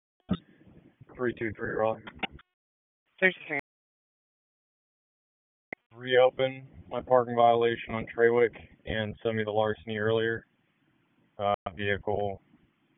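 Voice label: Police Northeast District Dispatch, Raleigh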